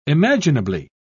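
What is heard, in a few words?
"imaginably", British English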